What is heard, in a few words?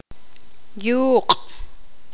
poqomam; cerro